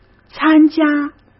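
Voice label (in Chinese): "参加", Mandarin Chinese; chinese-flashcards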